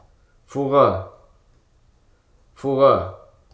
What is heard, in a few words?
"hundred", Hruso